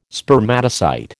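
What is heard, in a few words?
"Spermatocyte", American English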